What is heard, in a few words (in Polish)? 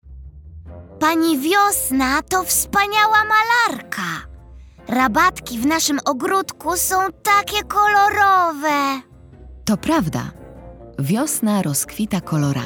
narracja; czasopismo mis; wiosna; rozmowa